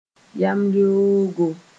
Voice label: "yamleoogo", moore

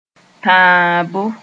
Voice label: "tãabo", moore

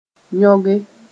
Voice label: "yõge", moore

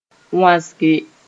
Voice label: "wãsge", moore

